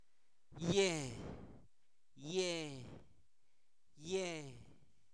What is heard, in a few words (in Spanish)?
cuello, Zapoteco, santo domingo albarradas; cuerpo; INALI